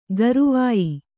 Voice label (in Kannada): "ಗರವೊಯ್", Kannada